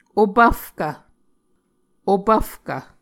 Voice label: "opvfkv", Muscogee

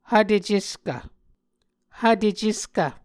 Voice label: "hvteceskv", Muscogee

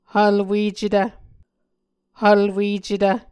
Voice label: "hvlwecetv", Muscogee

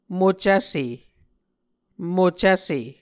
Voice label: "mocvse", Muscogee

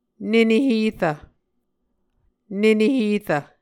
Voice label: "nene herv", Muscogee

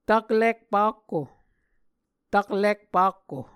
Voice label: "taklik-pakko", Muscogee

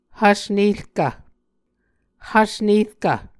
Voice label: "hvs nerkv", Muscogee

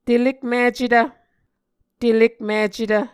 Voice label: "telekmicetv", muscogee

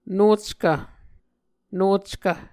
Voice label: "nockv", Muscogee

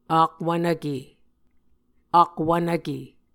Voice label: "akwvnvke", muscogee

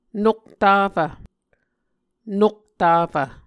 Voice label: "noktafv", Muscogee

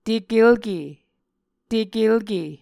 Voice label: "tekelke", Muscogee

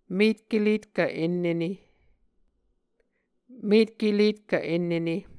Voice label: "metkeletkv ennene", Muscogee

